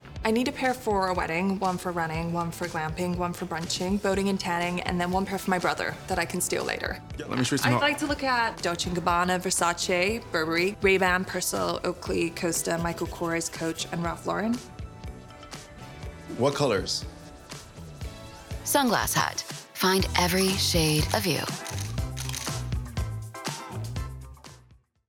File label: US ACCENT